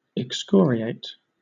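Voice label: "excoriate", British English; pronunciations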